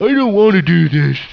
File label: annoyed; war2; humans; peasant